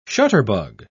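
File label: "shutterbug", British English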